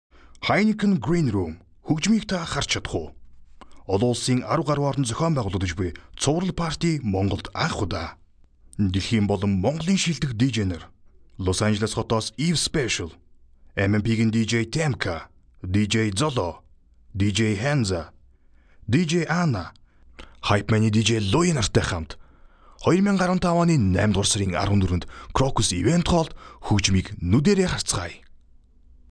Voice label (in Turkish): mogolca seslendirme erkek sesi